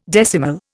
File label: "decimal", Indian